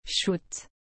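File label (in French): "choute", French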